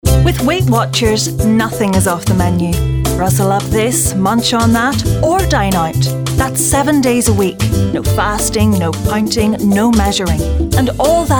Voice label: Commercial